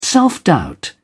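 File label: "self-doubt", British English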